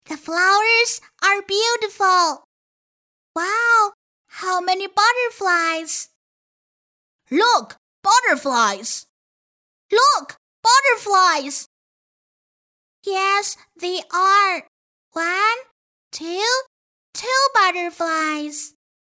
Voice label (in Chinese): 英语 男女 童 角色